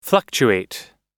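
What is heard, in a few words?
"fluctuate", US English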